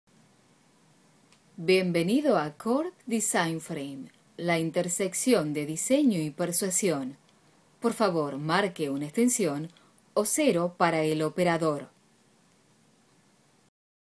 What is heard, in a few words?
on hold message; Core Design Frame Voicemail